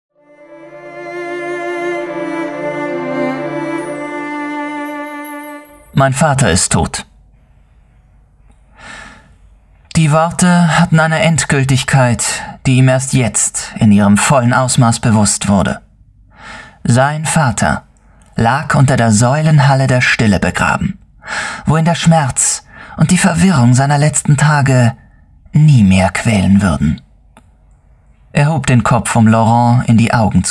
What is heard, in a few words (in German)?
Hörprobe emotional